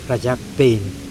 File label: "back part of sail", Mokilese